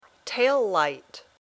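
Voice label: "tail light", English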